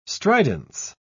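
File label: "stridence", English, British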